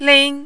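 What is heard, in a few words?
"ling", Mandarin, first tone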